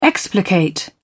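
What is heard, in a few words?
"explicate", English, British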